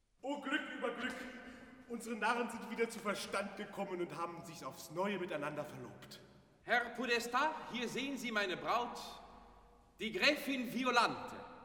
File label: Dialog; O Glück über Glück; Die Gärtnerin aus Liebe